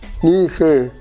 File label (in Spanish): "tres", Ixcateco; Números